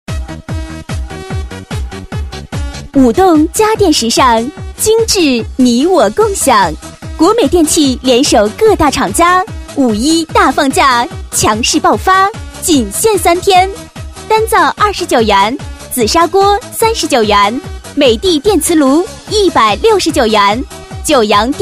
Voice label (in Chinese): 女, 促销, 国语女声, 年轻; 国美电器